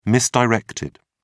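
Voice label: "misdirected", British English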